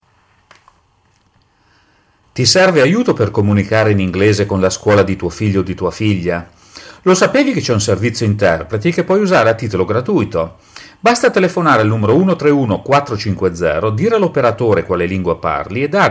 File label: italian; public schools; going to a public school